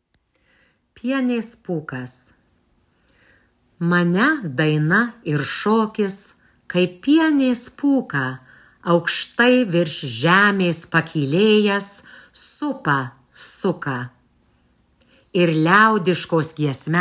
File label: narration; pienes pukas